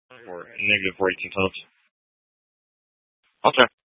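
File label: Tempe police department, scanner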